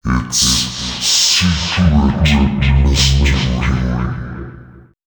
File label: male, voice